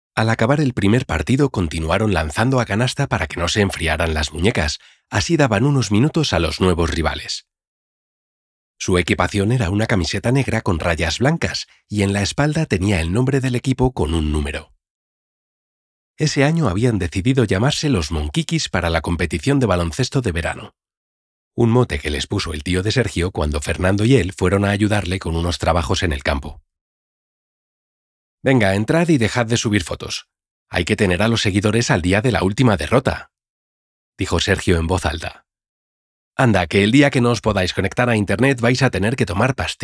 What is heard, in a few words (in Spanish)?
narrativa